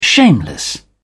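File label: "shameless", British English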